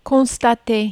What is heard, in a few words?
"constater", French